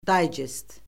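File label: "daycest", Azerbaijani; Orfoqrafiya Lüğəti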